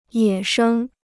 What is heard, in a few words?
"野生", Chinese